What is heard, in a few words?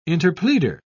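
"interpleader", British English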